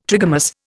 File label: "trigamous", Indian